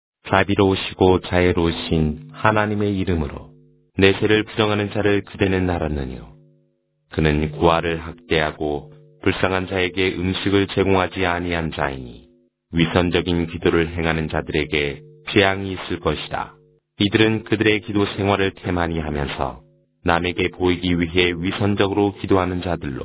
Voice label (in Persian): ترجمه گویای قرآن کریم به زبان کره ای; سوره ماعون